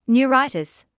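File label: "neuritis", British English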